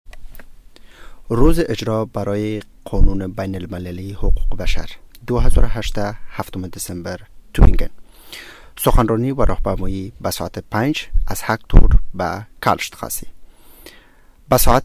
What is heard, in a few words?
Dari call